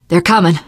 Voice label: incoming, teengirl survivor voice

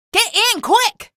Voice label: get aboard, female; video games; npc; source engine; episodic; episode 1

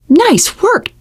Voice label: nice job, teengirl; source engine; left 4 dead 2; survivor

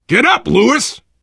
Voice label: revive friend loud, player survivor voice biker; source engine; left 4 dead 2

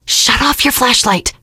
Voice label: kill that light, teengirl, voice; survivor voice

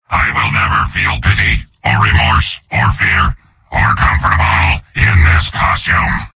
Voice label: soldier robot

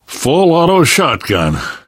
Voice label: take autoshotgun, survivor voice; source engine; left 4 dead 2; namvet

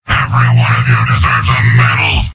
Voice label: soldier cheers; source engine; tf; mvm

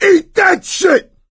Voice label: battlecry, voice; source engine; left 4 dead 2

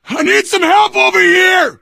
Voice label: help, player survivor voice biker; source engine; left 4 dead 2

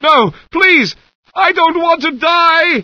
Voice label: fear; Source Engine; bshift; rosenberg

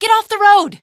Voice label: get off road, female; Source Engine; video game; coast; barn

